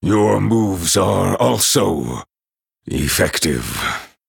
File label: Male Night Elf; Kurtrus